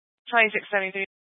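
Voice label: Sheriff Dispatch, scanner audio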